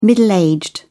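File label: "middle-aged", British English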